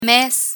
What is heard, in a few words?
"mes", Spanish; learn spanish